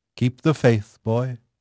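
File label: English male; Pirates Of The Caribbean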